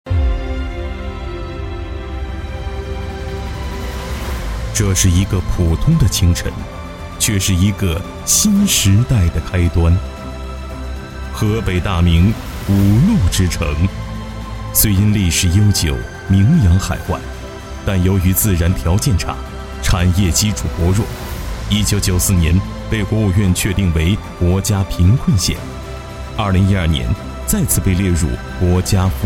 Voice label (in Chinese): 男 企业样音